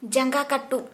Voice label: "Toe", Santali